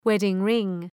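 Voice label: "wedding ring", English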